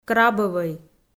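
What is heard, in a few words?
"крабӧвӧй", Komi, female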